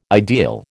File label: "ideal", American